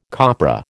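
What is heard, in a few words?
"copra", American English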